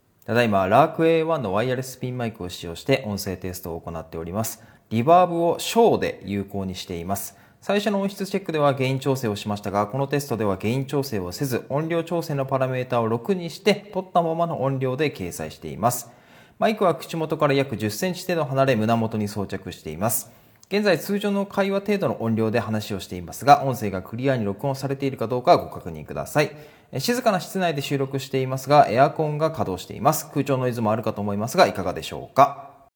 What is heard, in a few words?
Lark A1 Reverb Small; hollyland lark a1 review